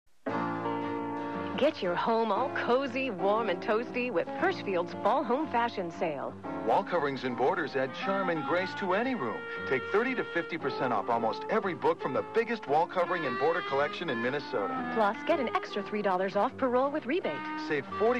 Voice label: commercials